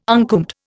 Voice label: "uncombed", Indian

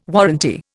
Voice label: "warranty", Indian

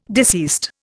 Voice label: "deceased", Indian

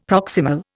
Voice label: "proximal", Indian; Dictionary

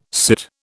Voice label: "sit", British

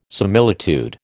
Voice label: "similitude", American

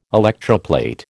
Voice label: "electroplate", American

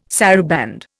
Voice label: "saraband", Indian

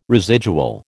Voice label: "residual", American

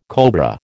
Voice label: "cobra", American

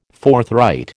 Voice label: "forthright", American

